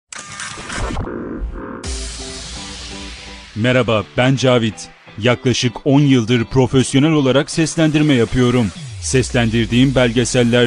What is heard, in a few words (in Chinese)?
土耳其语